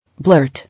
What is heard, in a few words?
"Blurt", English